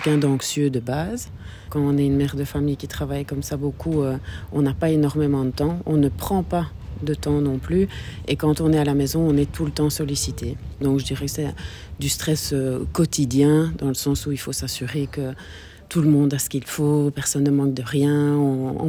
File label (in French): Reportage radio